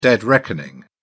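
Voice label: "dead reckoning", en, British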